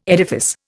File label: "edifice", Indian